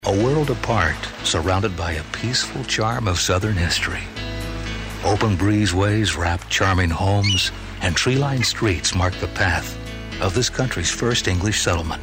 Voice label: Narr